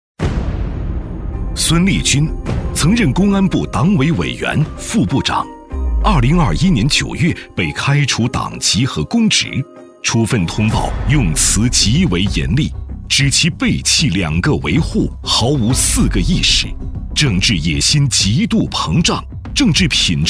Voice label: 男 反腐类 正义 严肃; 高端磁性; 大气浑厚; 零容忍

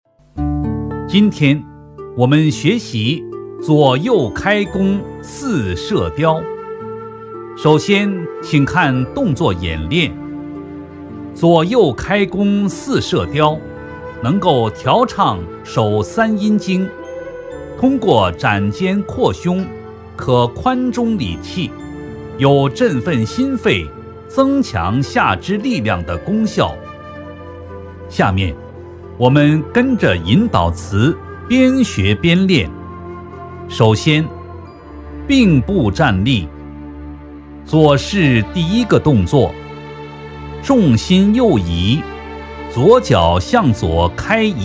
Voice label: 男 教学视频配音 跟练; 今天我们学习太极; 男60-角色方言; 舒缓抒情

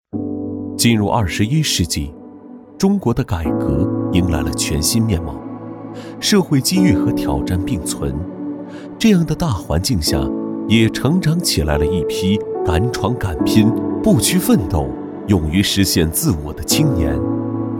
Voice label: 男 走心旁白 走心讲述, 年轻气质 素人自然; 交大微电影; 男D2-走心旁白《交大微电影》走心讲述

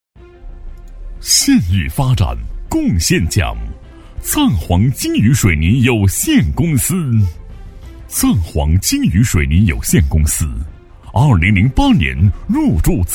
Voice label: 男 年度总结 大气浑厚

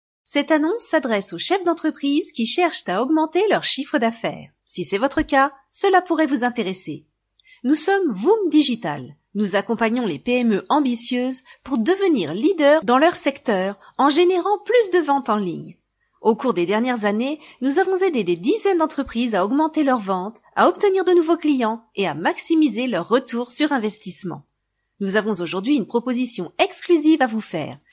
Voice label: 法语女声

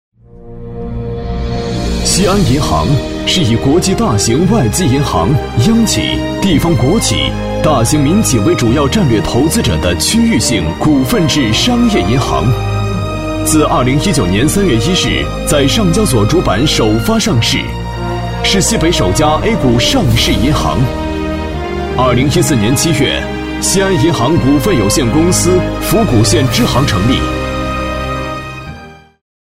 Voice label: 男 宣传片 银行 年轻大气; 男212-厚重有力 大气浑厚; 西安银行

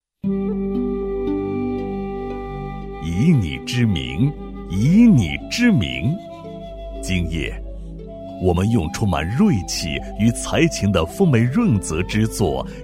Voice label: 男, 讲述专题, 大气讲述; 硬朗力度; 大气浑厚; 三毛散文奖

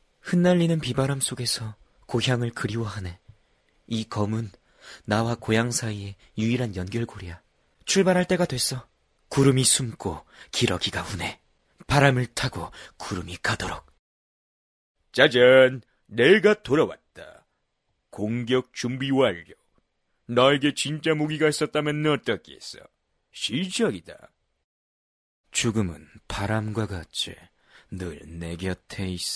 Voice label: 韩语男声 游戏信息流

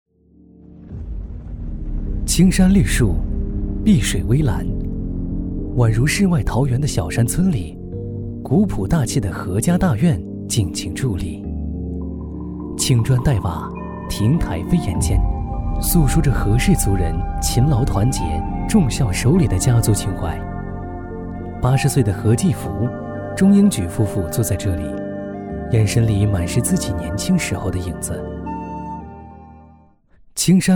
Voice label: 男 走心旁白 温馨; 质感磁性; 何家大院

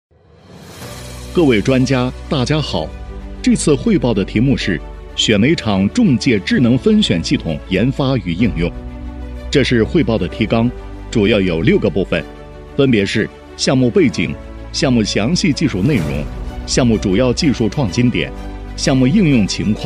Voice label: 男, 汇报专题, 稳重; 柔和; 大气浑厚; 男30-汇报专题-稳重

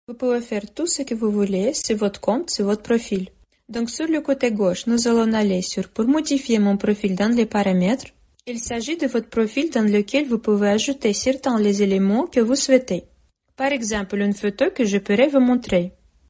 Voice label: 法语女声

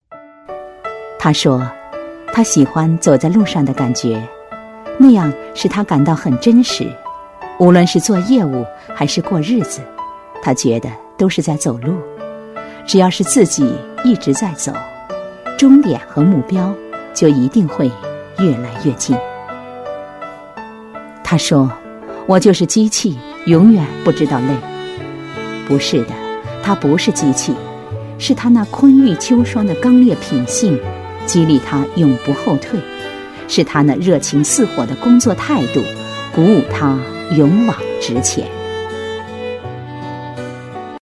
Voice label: 女 人物专题 随和讲解-真实, 成熟知性

